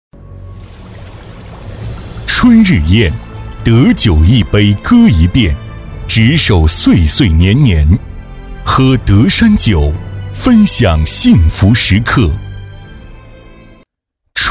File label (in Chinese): 男 广告; 激情有力 大气浑厚